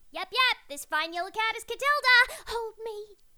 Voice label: Voiceover Actress; Katilda; Catscratch